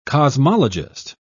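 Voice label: "cosmologist", British English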